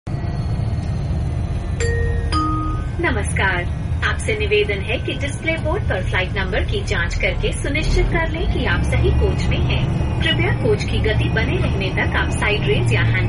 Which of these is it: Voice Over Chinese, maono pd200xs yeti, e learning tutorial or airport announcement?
airport announcement